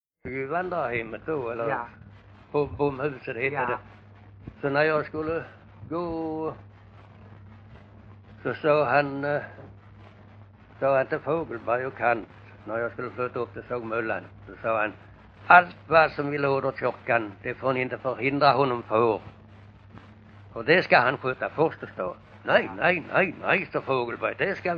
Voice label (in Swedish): Bosjökloster SK, dialekter; Folkminnen